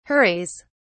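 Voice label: "hurries", English, British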